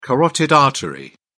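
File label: "carotid artery", British English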